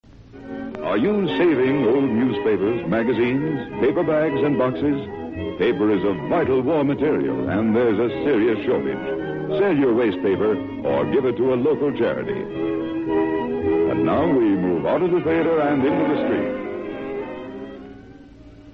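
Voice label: public service announcement waste paper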